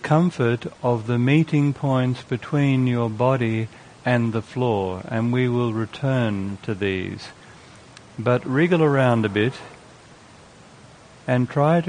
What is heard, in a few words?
Lying Meditation; SBS; Serenity Amidst Noise